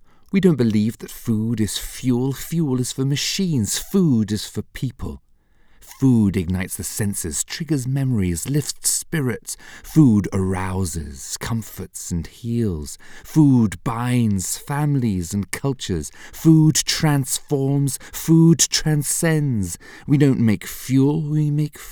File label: Performance Poetry; Chobani